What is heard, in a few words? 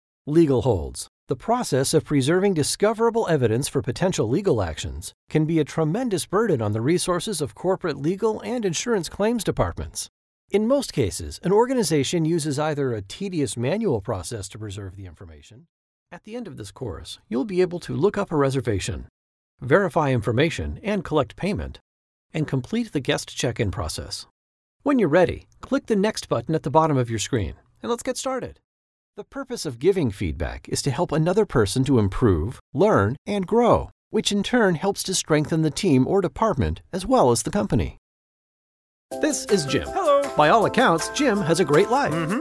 Elearning and Commercial